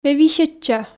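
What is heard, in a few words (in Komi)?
ме висьӧдча, Komi, female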